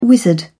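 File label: "wizard", English, British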